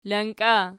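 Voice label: "lanka" nominative, Archi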